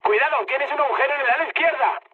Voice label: left wing, mood high, speech; War Thunder

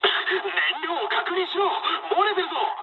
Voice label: tank damaged mood med, speech jp; War Thunder

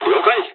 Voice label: dialogs japanese; War Thunder

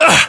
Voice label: pain, voiceovers english

